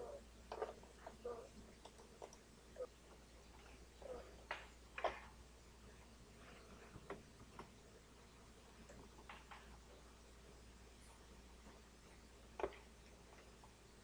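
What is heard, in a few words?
"ring", spoken word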